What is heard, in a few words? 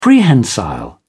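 "prehensile", English, British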